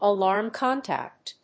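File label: "alarm contact", English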